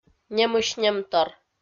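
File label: "немысь-немтор", Komi, female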